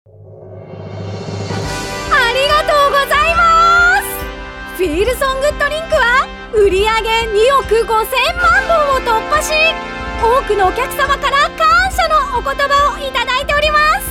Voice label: Narration